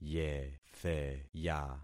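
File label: "yetheya", concatenated syllables; Affixing experiment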